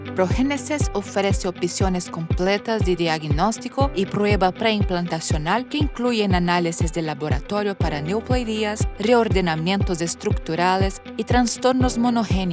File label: spanish commercial